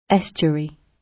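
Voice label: "estuary", British; Flora and fauna, agriculture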